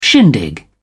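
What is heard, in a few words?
"shindig", British English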